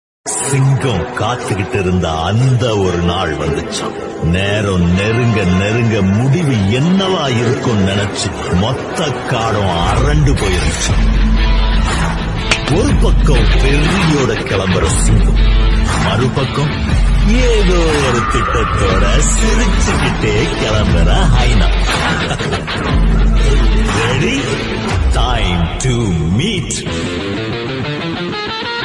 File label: Dialogue Tamil